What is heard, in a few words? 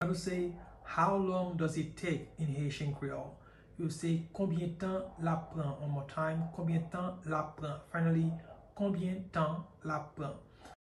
Konbyen tan l ap pran, Haitian Creole pronunciation by a Haitian